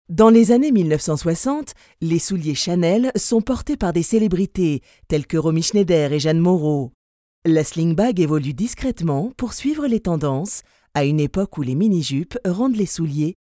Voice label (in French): Explicatif droit; CHANEL